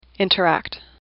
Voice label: "interact", English; AWL